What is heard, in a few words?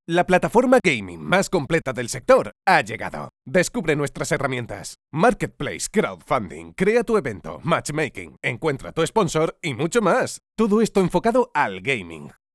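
comercial, Spanish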